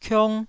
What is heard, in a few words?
"kiong1", taiwanese syllable